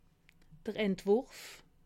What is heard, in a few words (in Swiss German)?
"entwurf", alsace